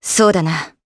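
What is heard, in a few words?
Vox Japanese; Hilda; kingsraid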